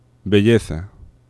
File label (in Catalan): "belleza", laterals; laboratori fonetica